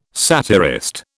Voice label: "satirist", British